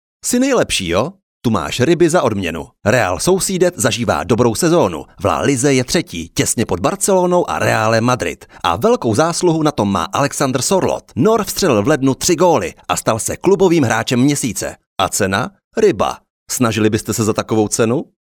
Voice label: commercial